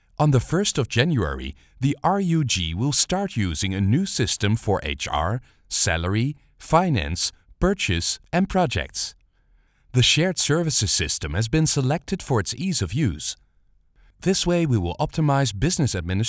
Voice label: Corporate English

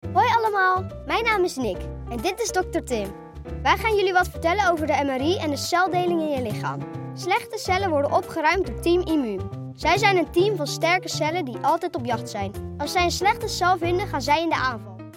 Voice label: Explainer Dutch

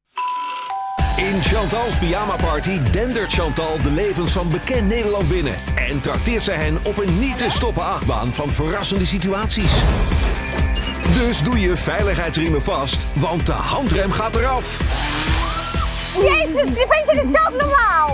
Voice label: voice over; chantals pyjama party

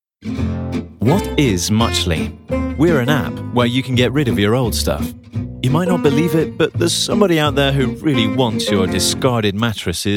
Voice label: CORPORATE EXPLAINER

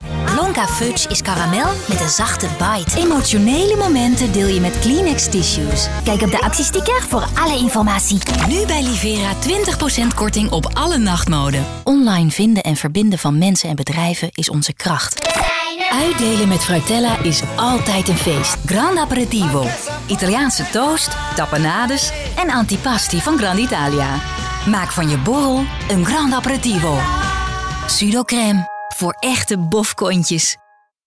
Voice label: Voice Demo

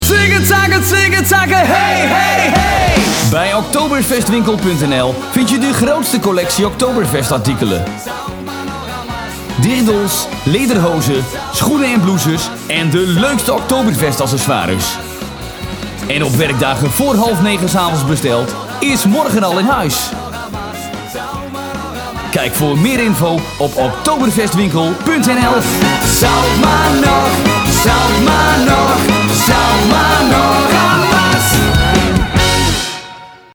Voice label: NL; Oktoberfestwinkel

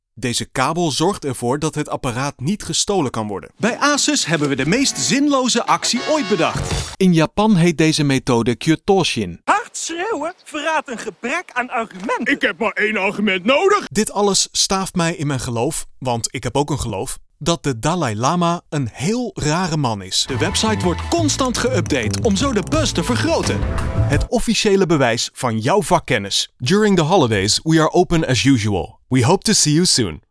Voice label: Voice Demo

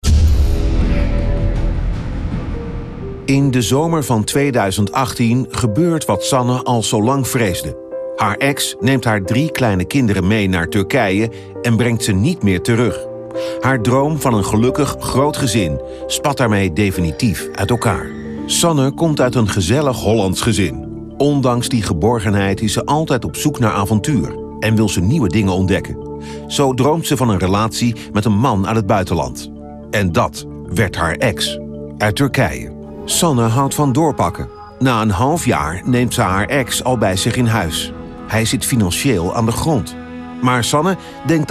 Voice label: voice-over

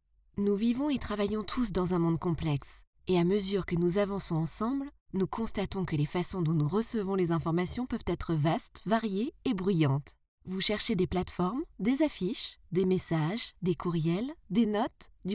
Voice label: French

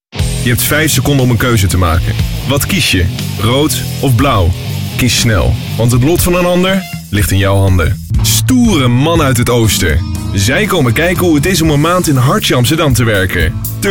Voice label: commercial

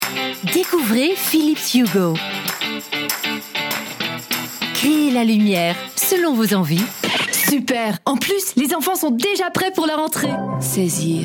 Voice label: FRENCH Commercial

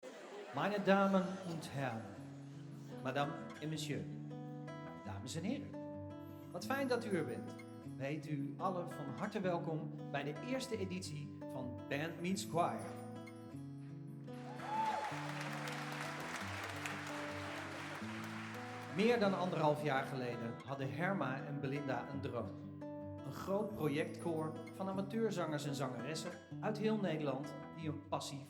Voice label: Storytelling; BMC2020

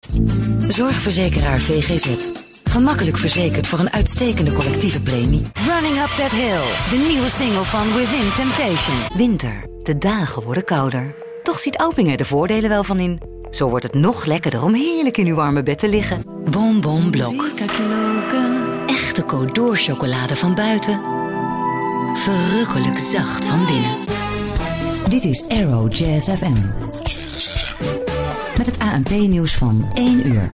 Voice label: Commercial

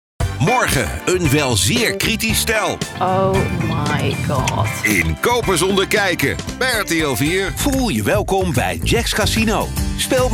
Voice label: demo voice over

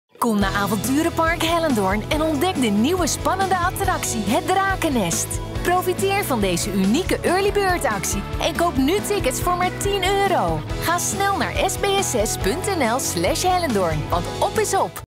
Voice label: voice over

